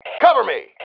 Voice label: COVER ME, MALE